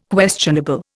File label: "questionable", Indian